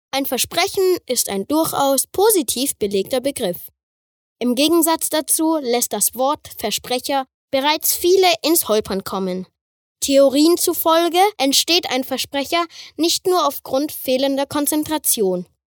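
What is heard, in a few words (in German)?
commentary, Freundlich; Versprecher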